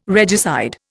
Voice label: "regicide", Indian